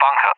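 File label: "bunker", English, UK; Target; DCS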